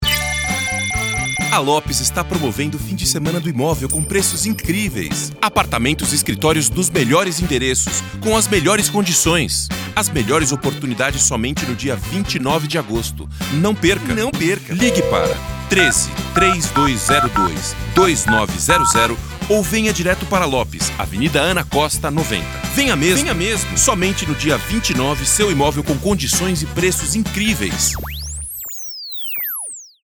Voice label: portuguese brazilian commercial